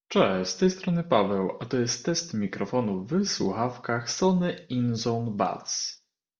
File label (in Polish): sony inzone buds cicho